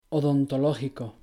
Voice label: "odontológico", Spanish; natural stress in spanish